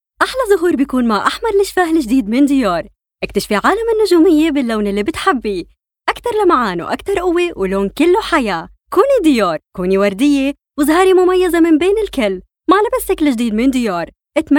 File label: Arabic, Female